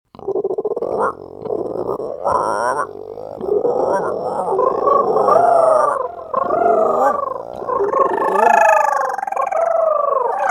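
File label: animation men creatures, Voice Over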